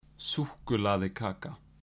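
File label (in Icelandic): "súkkulaðikaka", Icelandic; matarhefti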